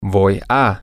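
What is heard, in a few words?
i Am Going To, male, spanish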